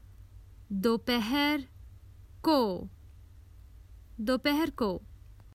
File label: Common Hindi Phrases for travel In the afternoon